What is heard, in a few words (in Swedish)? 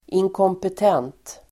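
"inkompetent", Swedish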